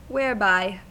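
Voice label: "whereby" English, US; pronunciations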